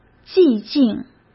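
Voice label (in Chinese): "寂静", Mandarin Chinese; hsk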